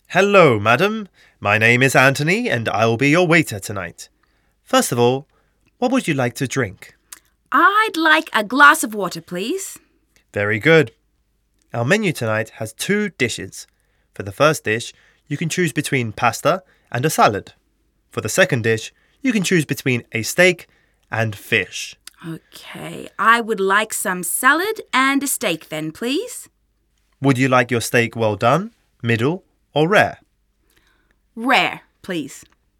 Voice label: Restaurant Conversation